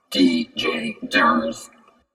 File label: robot